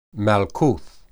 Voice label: "malkuth", Hebrew

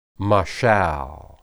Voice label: "mashal", Hebrew

